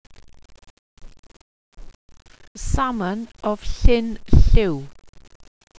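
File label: salmon of llyn llwyw, welsh pronunciations; Wales/welsh names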